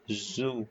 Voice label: short voyels arabic alphabet